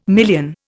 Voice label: "million", Indian